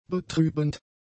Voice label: "betrübend", German; adjectives